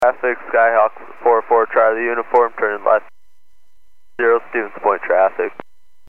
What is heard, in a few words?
Aviation 122.7; KSTE